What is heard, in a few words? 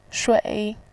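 "shui", Mandarin Chinese, third tone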